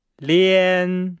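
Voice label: "lian", Chinese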